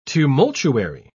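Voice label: "tumultuary", British English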